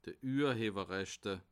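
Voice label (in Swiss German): "urhewerrechte", Bas Rhin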